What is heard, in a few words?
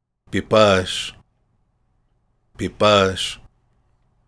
piipaash; Piipaash People / Maricopa; Piipaash Greeting; Mirikyaan